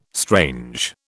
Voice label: "strange", British

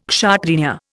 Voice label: "Kshatriya", Indian

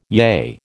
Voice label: "yea", American

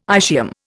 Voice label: "ischium", Indian

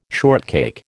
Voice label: "shortcake", American

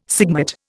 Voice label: "sigmate", Indian

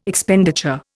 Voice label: "Expenditure", Indian

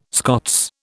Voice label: "Scots", British

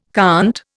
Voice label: "conte", Indian

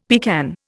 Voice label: "pecan", Indian; Dictionary

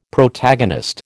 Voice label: "Protagonist", American

